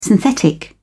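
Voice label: "synthetic", British English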